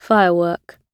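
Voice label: "firework" British English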